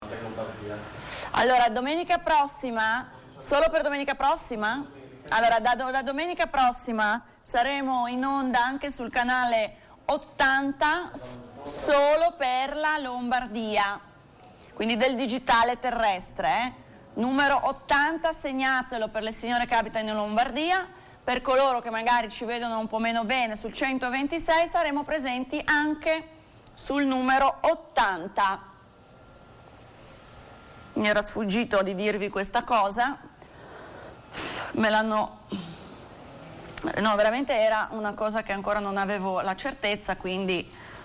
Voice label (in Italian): annuncio studio